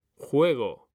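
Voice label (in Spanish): "JUEGO", male; mekanta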